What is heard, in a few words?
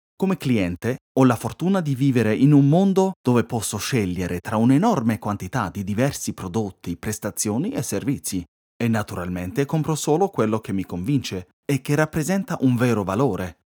male, Italian, e-learning; german